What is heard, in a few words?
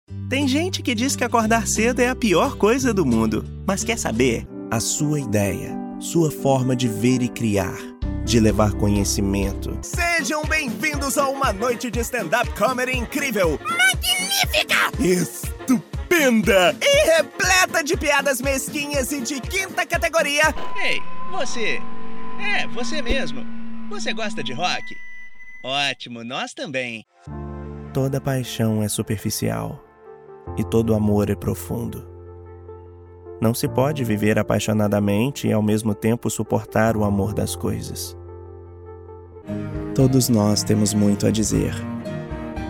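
Brazilian Portuguese, Commercial; GoLocalise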